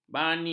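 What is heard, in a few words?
"bani", Malay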